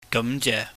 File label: "gamjeh", Cantonese